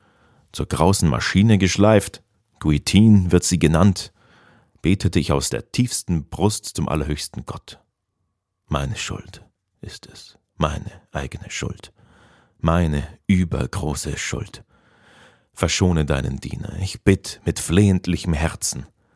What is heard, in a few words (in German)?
SPRECHER HÖRBUCH Hörbuch